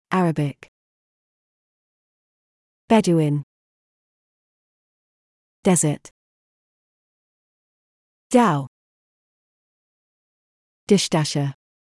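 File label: British; oman; wordbank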